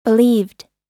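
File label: "believed", US English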